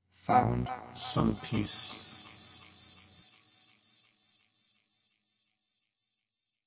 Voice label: processed male vox poetry bits; Robotic or Stuttery or Spooky